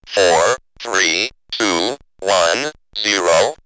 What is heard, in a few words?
Robot 4, 3, 2, 1, Zero, robot vox; X-Static Goldmine